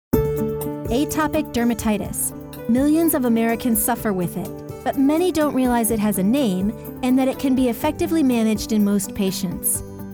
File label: Medical Narration